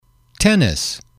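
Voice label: "tennis", English, male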